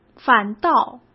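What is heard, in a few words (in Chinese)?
"反倒", Mandarin Chinese; hsk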